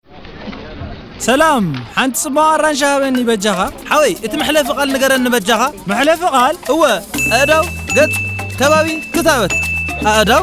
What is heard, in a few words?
Juice Seller Tigriniya